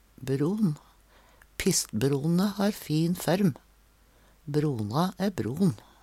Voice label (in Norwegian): "bron", Numedalsmål; Substantiv hokjønn; Handverk (metall, tre, lær)